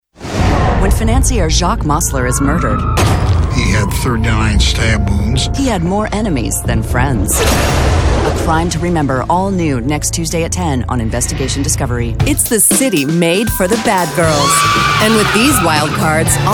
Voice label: promo women